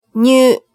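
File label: "nyu", Japanese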